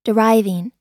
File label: "deriving", us